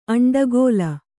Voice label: "ಅಂಡಗೋಲ", Kannada

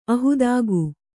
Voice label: "ಅಹೇತುಕ", Kannada

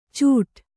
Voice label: "ಚೂಡಾ", Kannada

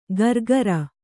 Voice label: "ಗರ್ಗರ", Kannada; gargara; noun

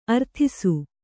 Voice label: "ಅರದೇಸಿ", Kannada

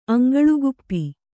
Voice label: "ಅಂಗಳುಗುಪ್ಪಿ", Kannada

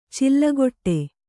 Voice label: "ಚಿಲ್ಲಗೊಟ್ಟೆ", Kannada

